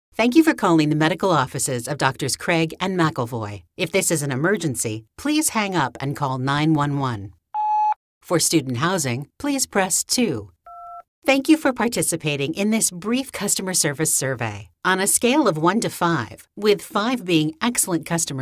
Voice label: On hold IVR